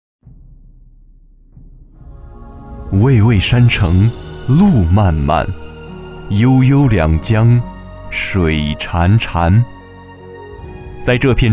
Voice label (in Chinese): 男 党建 稳重; 税徽